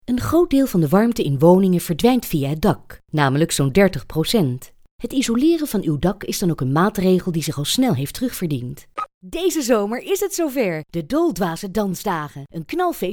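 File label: Dutch female voice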